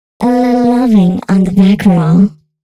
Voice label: female spoken hooks, dance ultra voice

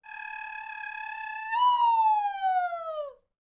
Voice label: MEXICO VOICE, MEXICAN; ILIO; Vocal Planet VOL-5

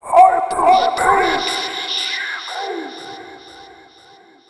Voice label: Hyperspace, Robotic Vox; Zero-G Club Techno

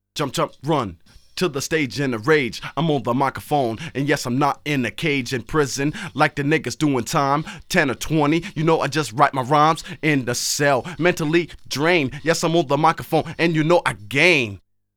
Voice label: In a rage, Rapsody Vocals; Masterbits CLIMAX 6

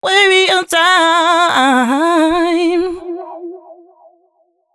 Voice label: female, C# 140bpm, Dance Ultra Voice, short hooks; Mutekki Media; Dance Ultra Voice VOL-1

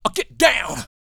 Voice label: male spoken; R&B Dance

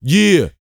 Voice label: "YEAH" F; R&B & Dance; HIP-HOP; ILIO; Vocal Planet

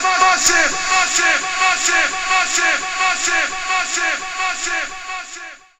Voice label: Massive Vox, Jungle

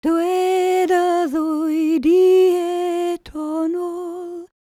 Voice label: WELSH F, World Voices

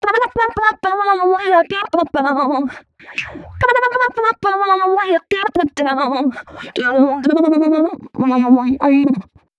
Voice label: female c#133, adlips

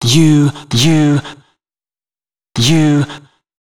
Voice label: male d#130, spoken hooks; Mutekki Media; Dance Ultra Voice VOL-1

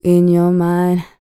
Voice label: FEM SPOKEN; ILIO; Vocal Planet VOL-4; R&B & Dance

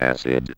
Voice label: ROBO VOX; Masterbits - Tekkno Trax

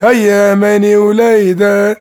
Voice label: MAN VOICE, Arabian Traditions

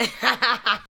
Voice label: ADLIBS 90; Ueberschall; Jam Box

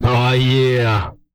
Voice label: aw yeah, Vocal, R&B Dance, hip-hop; TB HIP-HOP

